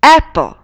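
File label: "apple", English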